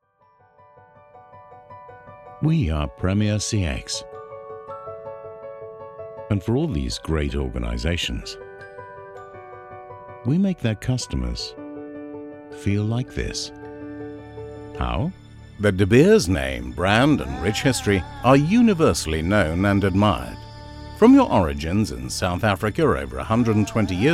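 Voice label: english uk male informative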